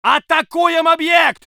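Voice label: voice soviet infantry; Red Orchestra 41-45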